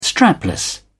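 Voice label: "strapless", British English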